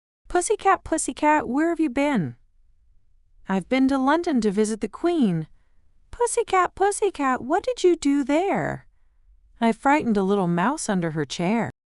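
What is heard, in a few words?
Pussycat Pussycat, female, US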